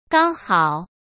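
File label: "刚好", Mandarin Chinese; chinese flashcards; hsk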